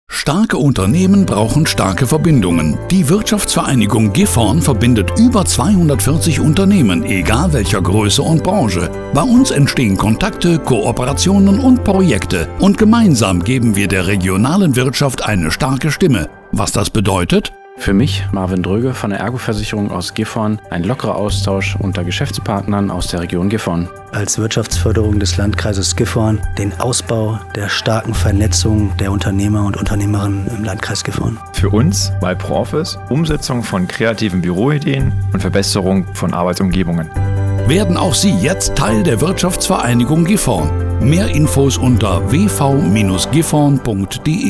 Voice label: SPOT; WVG; wvgf on air